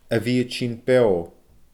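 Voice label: "a bhith a tighinn beoo", Scottish Gaelic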